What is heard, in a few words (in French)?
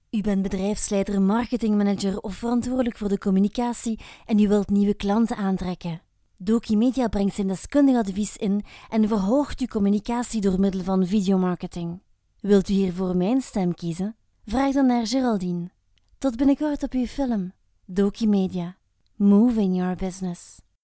flamand